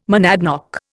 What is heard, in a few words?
"monadnock", Indian